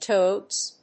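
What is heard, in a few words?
"toads", English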